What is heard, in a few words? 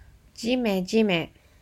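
"jime jime", Japanese; ジメジメ – jime jime; manga onomatopoeia sound effects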